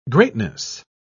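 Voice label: "greatness", British English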